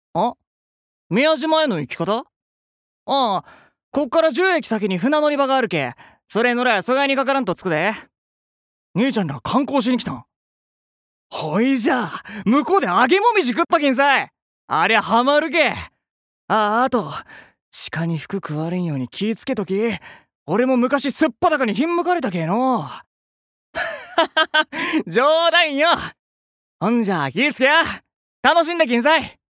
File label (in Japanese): アニメ